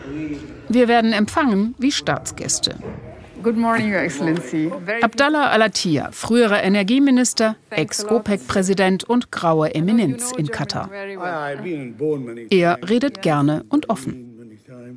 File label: Voiceover; Weltspiegel ARD